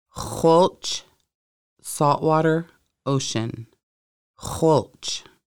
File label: saltwater ocean, Lushootseed